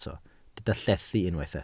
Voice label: "llethu", Welsh